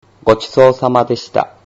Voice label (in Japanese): "gochisousamadeshita", Japanese